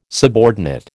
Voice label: "subordinate", American